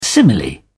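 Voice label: "simile", British English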